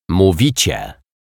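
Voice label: "mowicie", Polish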